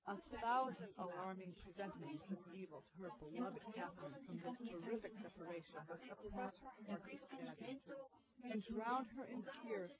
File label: female4 inst sim